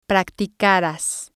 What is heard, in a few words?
"practicaras", spanish